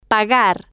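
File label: "pagar", Spanish, female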